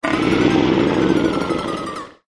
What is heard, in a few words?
furious; toontown-classic